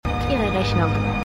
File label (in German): Kellnerin, Stimmproben